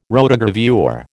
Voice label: "rotogravure", American

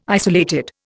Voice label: "isolated", Indian

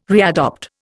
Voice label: "readopt", Indian

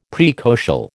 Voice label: "praecocial", American

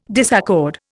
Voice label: "disaccord", Indian

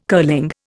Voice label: "curling", Indian

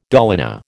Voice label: "dolina", American

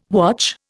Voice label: "watch", Indian

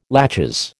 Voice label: "laches", American

